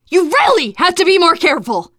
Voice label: team kill accident, teengirl; player; survivor; voice